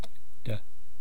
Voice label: "ta", East Cree syllabics